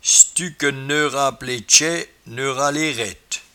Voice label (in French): "cetuquenne", patois jura